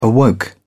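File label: "awoke", British English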